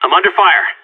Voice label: radio taking fire, seal, player vo; Counter Strike; CS:GO

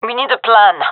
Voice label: request plan, gendermerie fem; vo

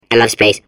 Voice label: babble; Portal 2

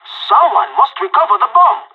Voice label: spotted loose bomb, pirate; Counter Strike Model Pack; CS:GO

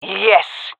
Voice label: cheer, fem; Counter Strike Model Pack; CS:GO; jungle fem epic

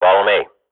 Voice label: radio bot follow me, swat; agents

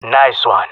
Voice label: compliment, jungle male; player vo; Counter Strike Model Pack; CS:GO

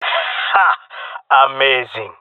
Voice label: cheer, jungle male; vo; agents; Source Model Packs; Counter Strike Model Pack; CS2

